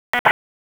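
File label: Raleigh Police North District Tactical; wake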